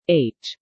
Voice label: "H", female